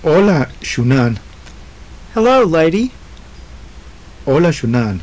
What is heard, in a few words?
conversation, maya; Ola xunáan.; Hello lady.